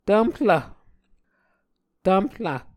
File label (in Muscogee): "tvmhlv", Muscogee